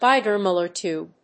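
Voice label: "Geiger-Muller tube", English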